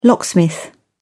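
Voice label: "locksmith", English, British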